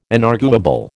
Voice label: "inarguable", American